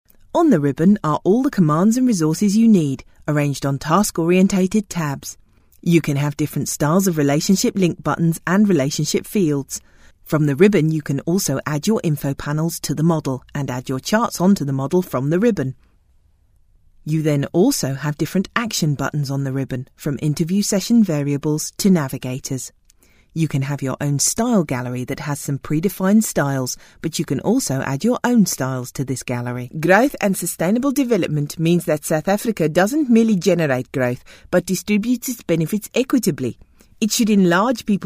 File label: e-learning